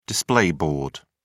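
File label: "display board", British English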